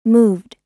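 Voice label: "moved", American English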